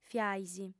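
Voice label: "fiais", Sardinian, female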